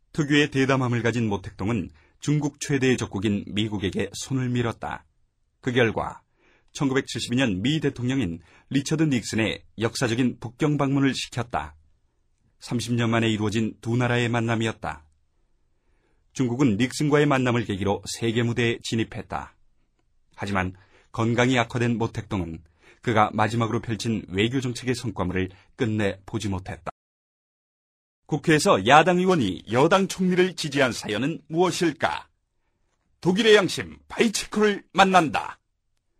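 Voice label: Korean, male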